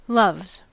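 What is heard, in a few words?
"loves", US English; pronunciations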